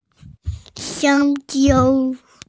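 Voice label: "banana", Mandarin Chinese, female; xiang1jiao1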